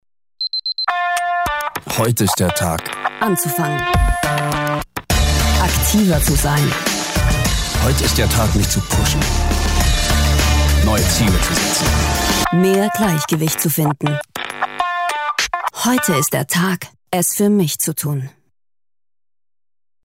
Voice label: Deutsch; Foodspring

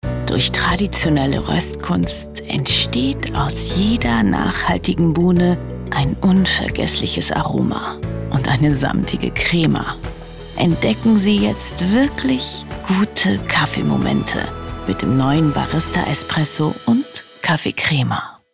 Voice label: Werbung Kaffee, Kaffee Commercial, German

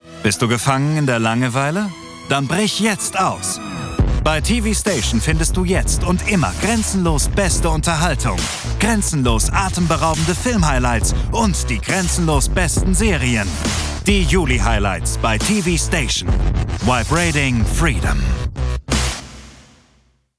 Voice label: Werbung TV Station; LOFT VOICES

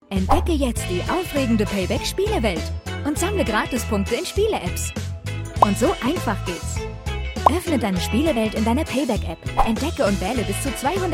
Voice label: Werbung jung warm motivierend verspielt; Payback Spielewelt

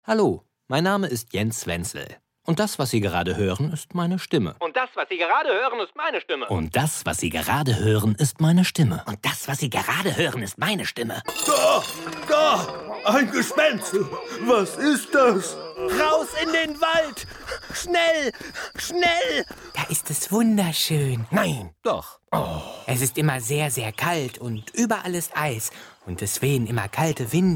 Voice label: Trickstimmen; LOFT VOICES

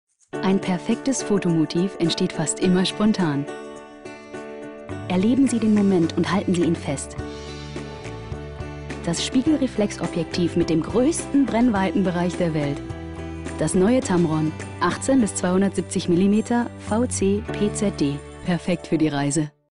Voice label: tv spot; tamron

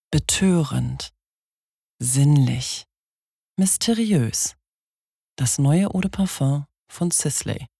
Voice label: Werbung

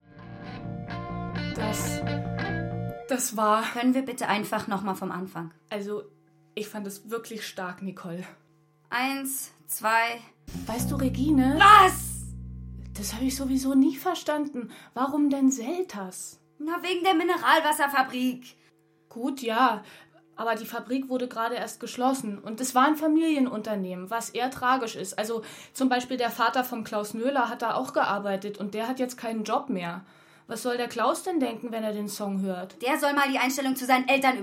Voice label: Dialog; Tierreich; LOFT VOICES

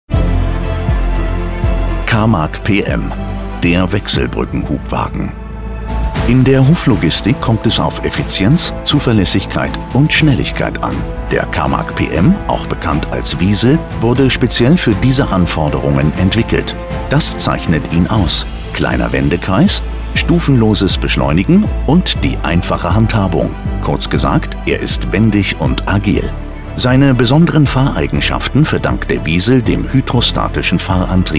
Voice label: imagefilm technik wechselbrueckenhubwagen

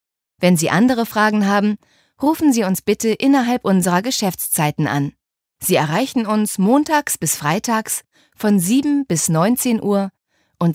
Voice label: Telefonschleife deutsch und englisch; DPD

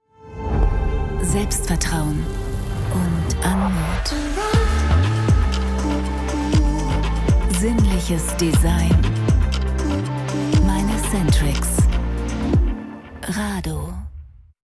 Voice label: Werbung TV; Rado Centrix

